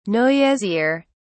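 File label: "noisier", British English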